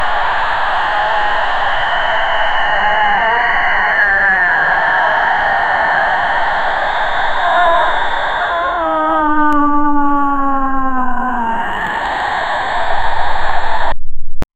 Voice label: scary young female voice; monsters

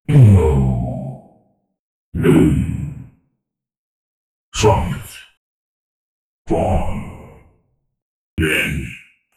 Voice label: deep professional male v; education

